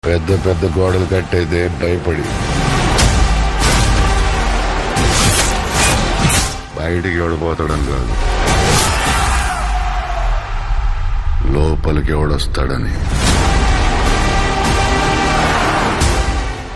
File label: Trailer Dialogue Ringtone; Salaar; Prabhas